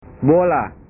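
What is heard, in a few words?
"bola", Indonesian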